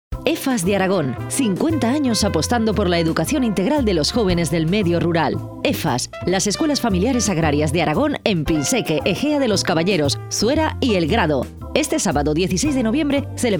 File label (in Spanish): Cuña Radio; EFAS 50